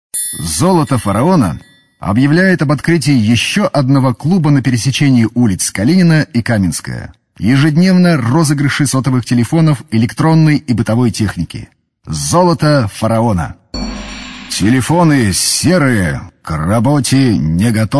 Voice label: voice male